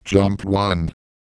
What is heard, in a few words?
jump, Microsoft Sam, Worms speechbanks